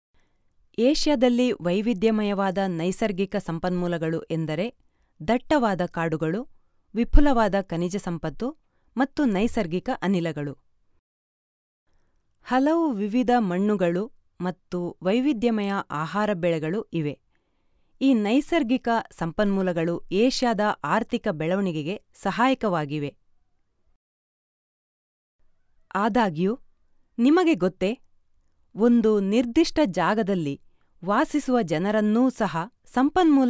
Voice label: Female Kannada